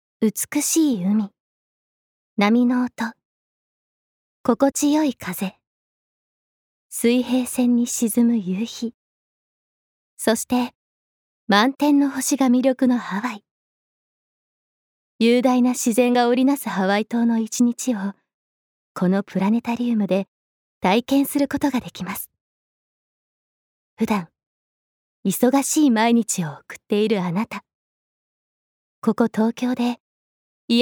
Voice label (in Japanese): ナレーション